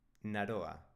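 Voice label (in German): "naroa", baskische Mädchennamen, Aussprache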